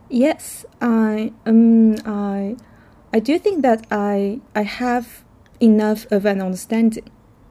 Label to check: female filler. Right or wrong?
Right